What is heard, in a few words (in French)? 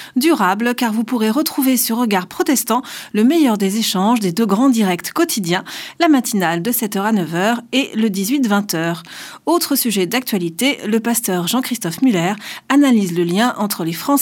Spot radio; Regards protestants